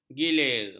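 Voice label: "gilir", Malay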